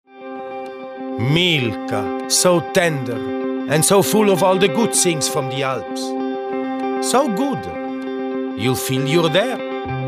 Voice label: English Italian radio; Milka

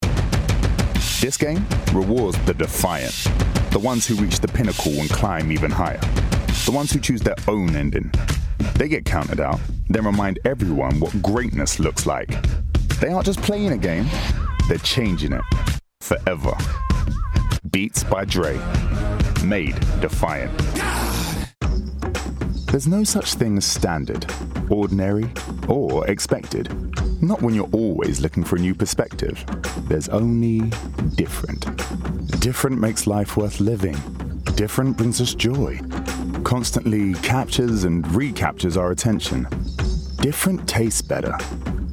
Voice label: commercial reel

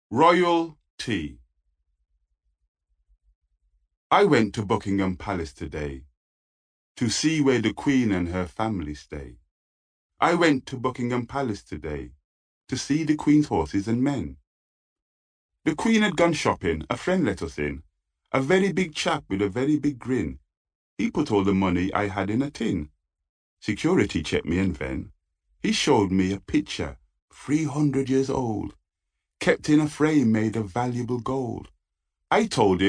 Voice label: Audiobook; Funky Turkeys

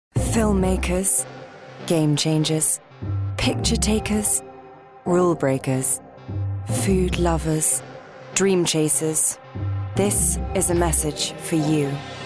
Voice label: Commercial Reel

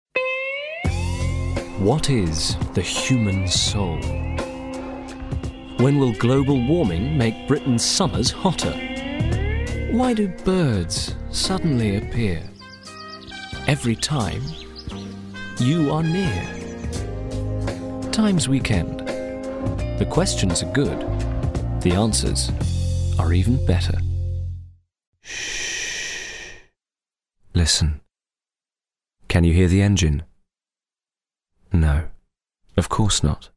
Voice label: Commercial

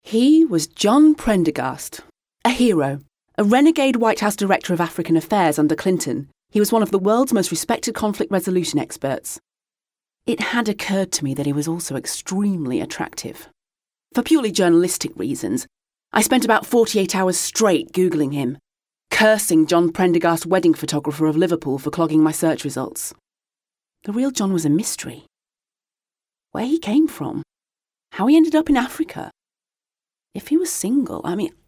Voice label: Narrative; My Worst Date

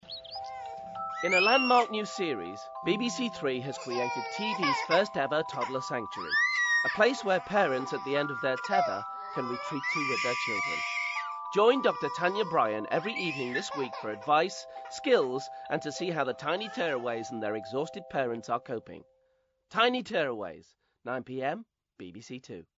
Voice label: Promo; Tiny Tearaways

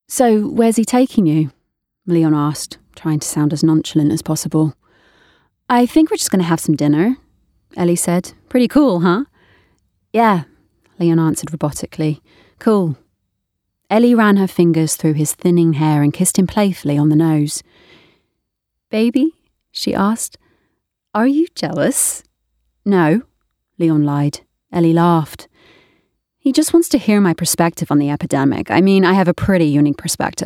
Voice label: AUDIOBOOK; Last Girlfriend on Earth

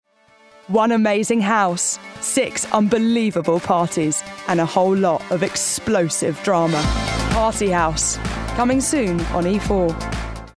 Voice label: PROMO; Party House

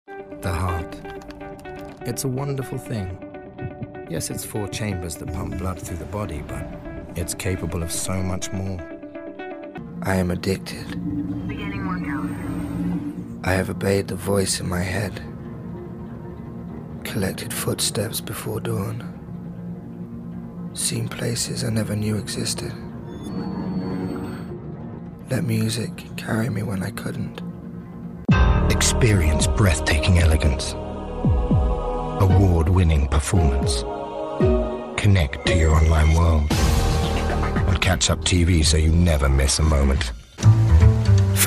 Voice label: Commercial Reel

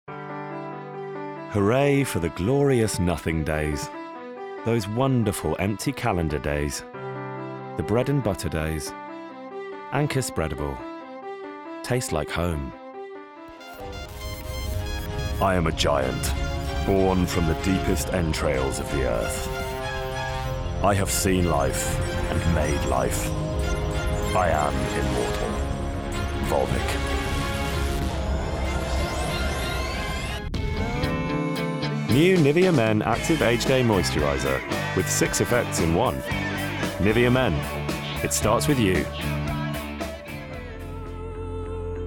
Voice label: Commercial Reel

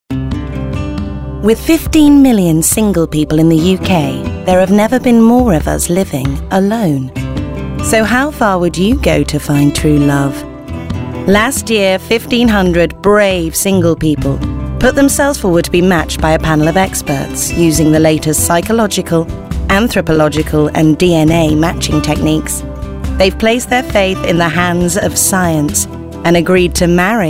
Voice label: Narrative Reel

